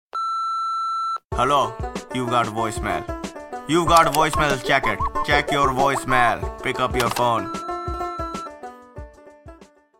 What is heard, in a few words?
Voicemail